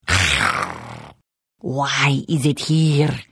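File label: Hello, Khajiit female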